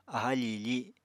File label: "aha fiifi", Apatani